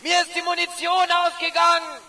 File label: ger need ammo; player; Source Engine; dod